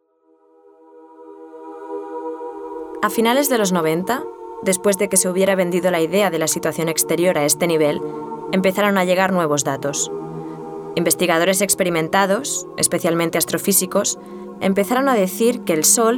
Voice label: Documental

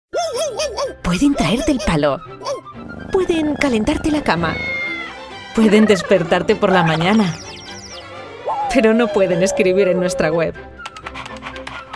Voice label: tienda veterinaria spot; barakaldo

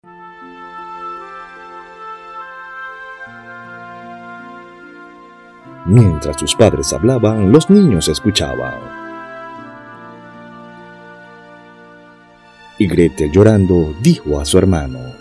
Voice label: audiolibro

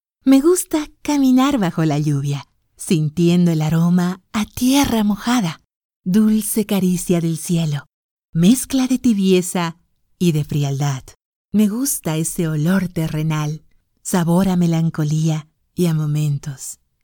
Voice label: locutora audiolibro

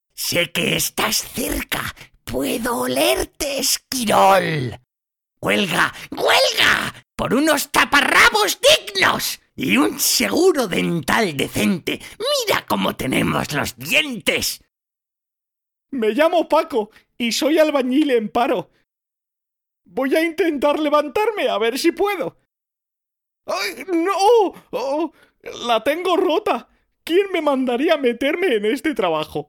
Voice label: Doblaje; Malditos V rgenes; Voice Over for Animation and Cartoon Characters

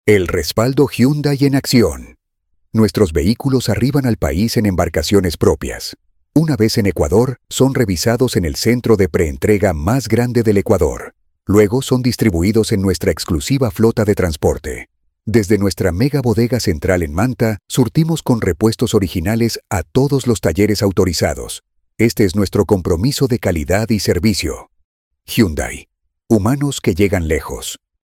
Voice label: Corporativo Hyundai Ecuador

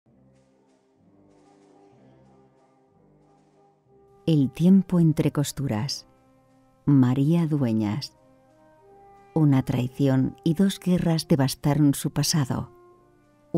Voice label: Muestra Audiolibro; El tiempo entre costuras; audiobook voice overs

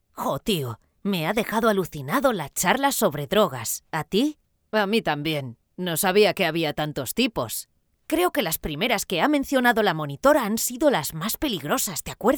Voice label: adolescentes

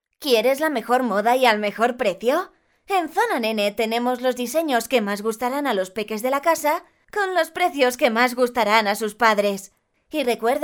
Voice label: anuncio

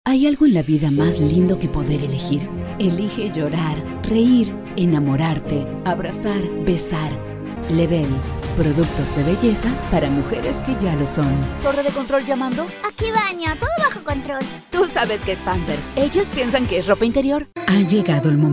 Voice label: Reel NEUTRO

